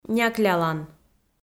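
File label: "няклялан", Komi, female; kpv audio dict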